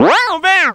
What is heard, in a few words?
bend up vox